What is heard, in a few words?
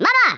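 "What the", Afrikaans accent; Speech; International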